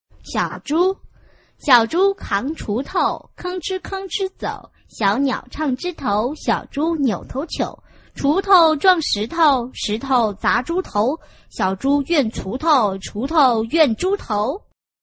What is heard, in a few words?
chinese tongue twister